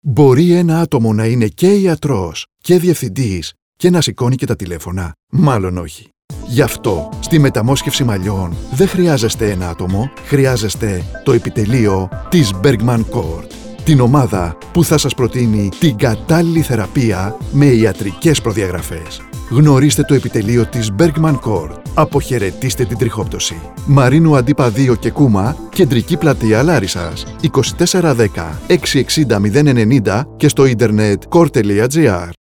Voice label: radio spots; trixoptwsi metamosxefsi malliwn therapies; epiteleio; larissa